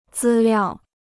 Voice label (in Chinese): "资料", Chinese